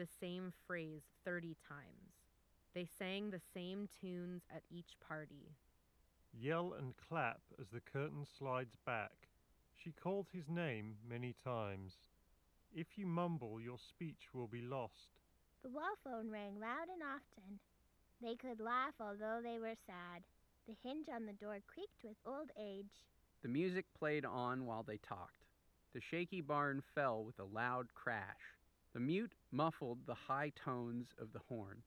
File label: KZ ZSN Pro X Ideal microphone sample